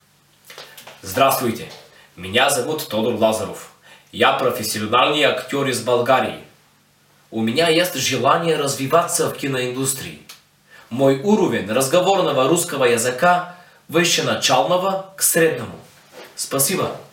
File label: RUS